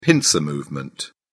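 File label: "pincer movement", British English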